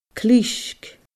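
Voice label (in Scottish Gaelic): "clisg", Scottish Gaelic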